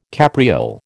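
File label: "capriole", American English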